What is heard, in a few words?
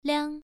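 "liang", Mandarin Chinese syllable, first tone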